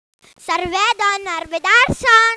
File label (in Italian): saluto toscano